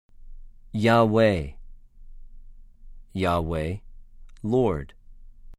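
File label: "יְהוָה", Hebrew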